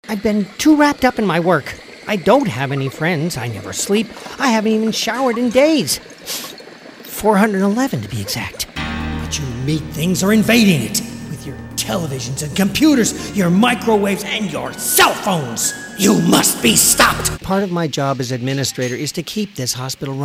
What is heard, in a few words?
Animation